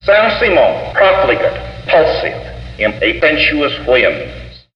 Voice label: sersemo copligant pulsive in a sensuous williams, gibbrish; Voice